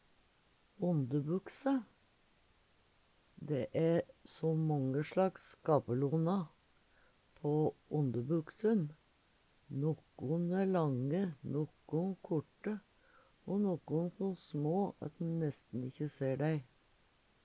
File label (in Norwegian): ondebukse, Numedalsmål